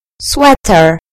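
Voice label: "sweater", British English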